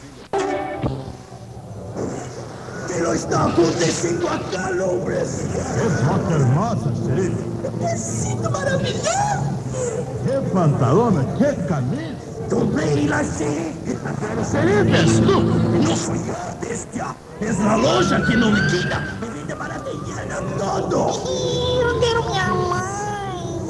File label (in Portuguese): comercial; Alvorada FM Araguari MG; Xerife